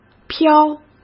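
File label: "漂", Mandarin Chinese; hsk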